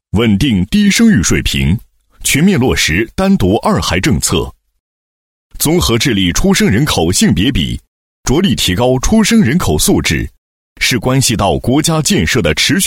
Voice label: 男 工作汇报; 某市2015年度人口计划生育工作汇报

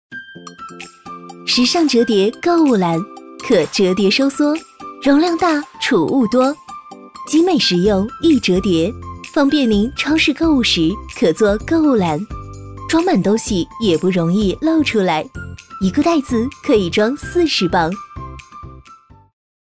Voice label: 女,广告,淘宝视频,购物篮

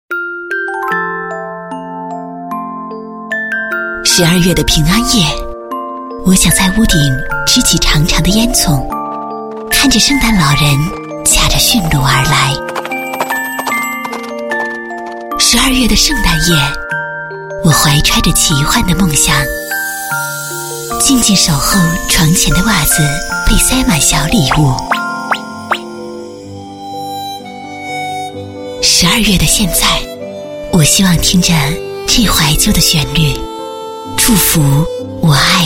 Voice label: 女-片花-CD片头

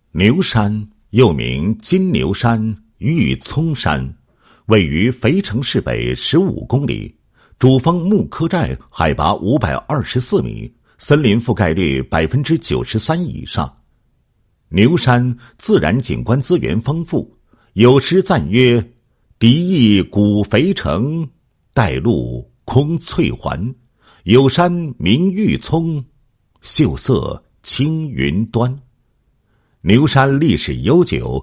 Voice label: 男 地区宣传 宣传介绍; 牛山宣传介绍

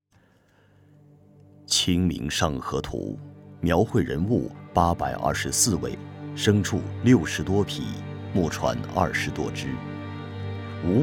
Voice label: 专题 前言; 清明上河图

